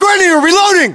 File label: inform reloading, english, us; battlechatter